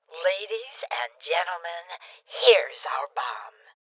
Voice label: Female Old; Bomb Spotted